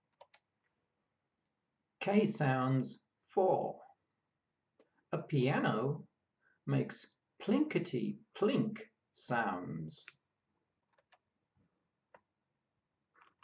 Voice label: K sounds, pronunciation of australian english

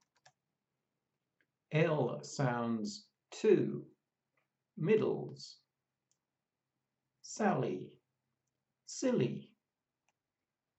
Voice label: L sounds, pronunciation of australian english

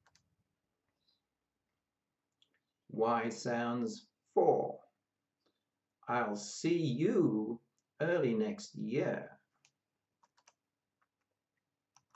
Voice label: Y sounds, pronunciation of australian english

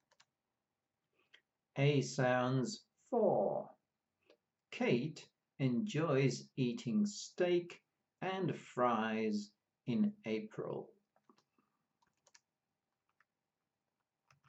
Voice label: Ei sounds, pronunciation of australian english

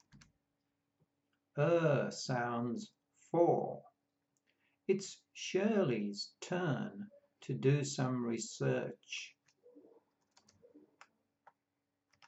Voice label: Ur sounds, pronunciation of australian english

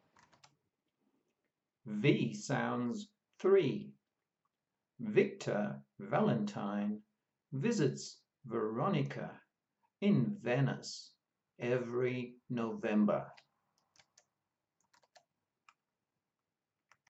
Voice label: V sounds, pronunciation of australian english

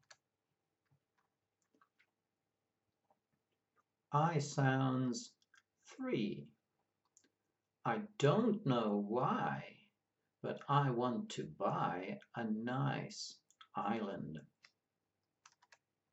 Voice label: Ai sounds, pronunciation of australian english

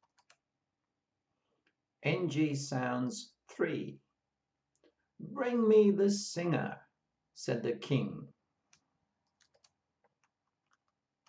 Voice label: Ng sounds, pronunciation of australian english

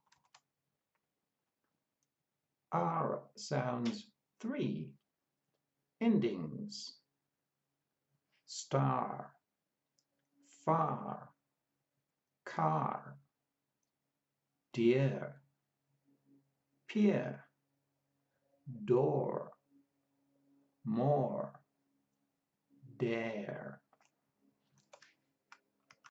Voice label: R sounds, pronunciation of australian english

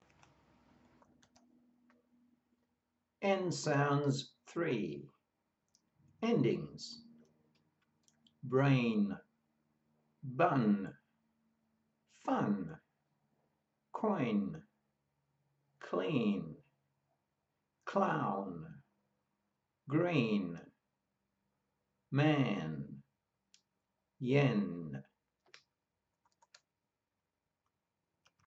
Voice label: N sounds, pronunciation of australian english

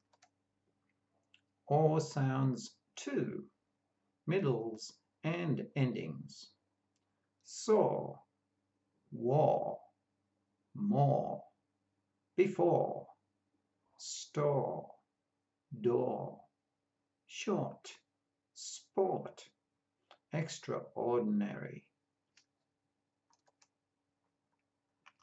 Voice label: Or sounds, pronunciation of australian english

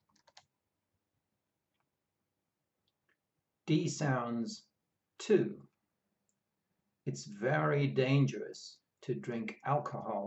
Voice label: D sounds, pronunciation of australian english

